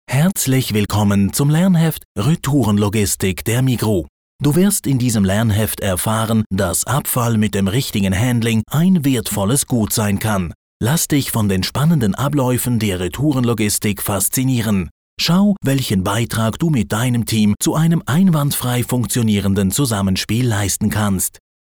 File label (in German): Dialekt Fremdtake Neutral